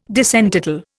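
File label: "disentitle", Indian English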